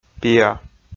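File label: "пиа", Komi, male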